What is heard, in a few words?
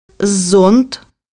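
"umbrella", Russian; bukvar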